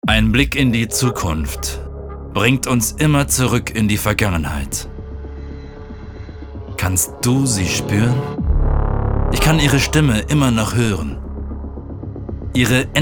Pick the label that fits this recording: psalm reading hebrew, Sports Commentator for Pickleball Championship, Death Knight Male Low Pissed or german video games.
german video games